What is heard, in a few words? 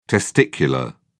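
"testicular", English, British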